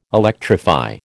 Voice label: "electrify", American

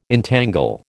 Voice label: "entangle", American

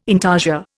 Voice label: "intarsia", Indian

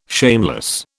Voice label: "shameless", British

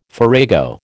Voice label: "farrago", American

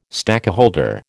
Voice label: "stakeholder", American

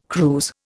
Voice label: "cruise", Indian

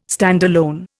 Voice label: "standalone", Indian

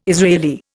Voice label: "Israeli", Indian

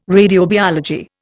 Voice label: "radiobiology", Indian

